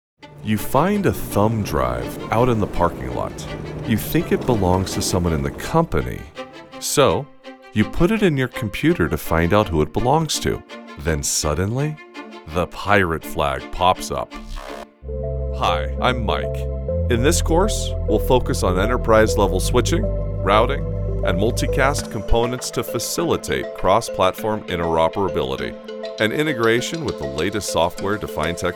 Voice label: eLearning